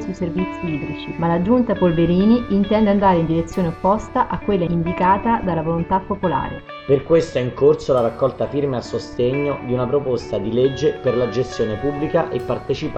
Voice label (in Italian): spot acqua